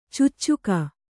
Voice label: "ಚೂಚುಕ", Kannada

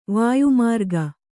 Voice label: "ವಾಯುಮಾರ್ಗ", Kannada

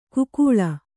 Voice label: "ಕುಕೂಳ", Kannada

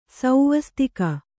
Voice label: "ಸ್ವಸ್ತಿಕೆ", Kannada

